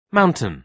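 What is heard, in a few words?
"mountain", British English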